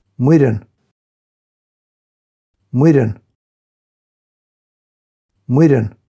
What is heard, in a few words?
"Muireann" Irish names for girls